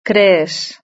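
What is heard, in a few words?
"crees", Spanish; verb-conjugator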